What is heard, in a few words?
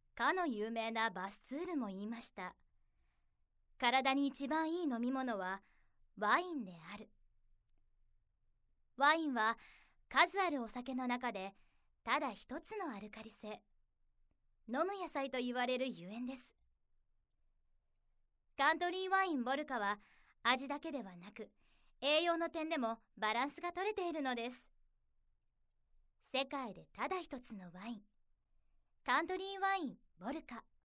narration